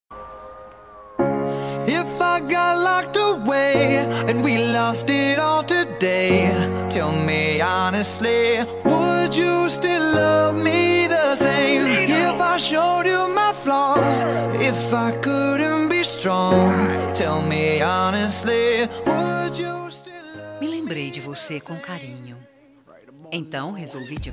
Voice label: BOA NOITE F, telemensagem

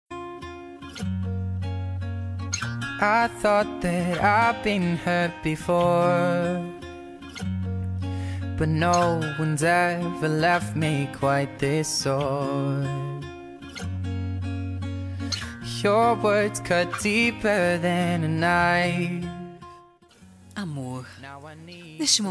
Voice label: BOA NOITE ROMANTICA F, telemensagem

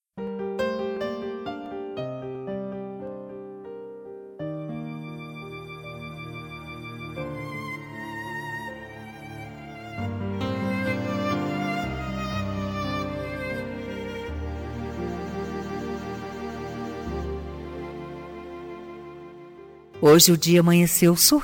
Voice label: ANIV AMIGA FEM, telemensagem

